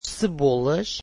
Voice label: portuguese onions